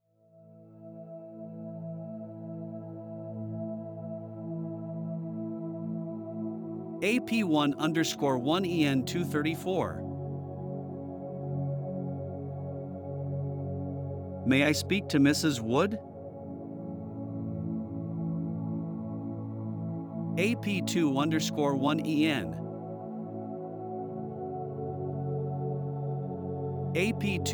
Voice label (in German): Dialogue DE Relax Saetze